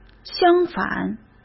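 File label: "相反", Mandarin Chinese; chinese-flashcards; hsk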